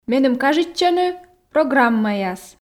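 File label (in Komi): меным кажитчӧны программаяс, Komi, female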